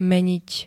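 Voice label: "meniť", Slovak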